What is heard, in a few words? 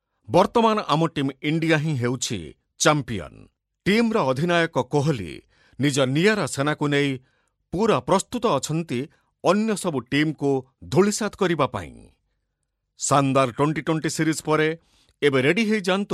narration oriya